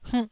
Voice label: "hngh1", taiwanese